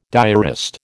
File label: "diarist", American